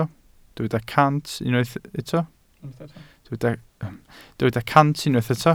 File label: "cant", Welsh